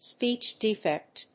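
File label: "speech defect", English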